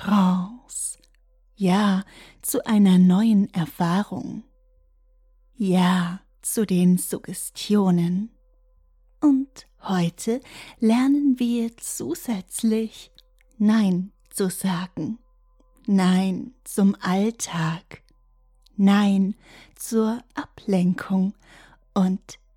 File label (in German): erotische Hypnose; OHRgasmus; Nein; Erster Teil der 5N-Reihe. Komm, komm tiefer!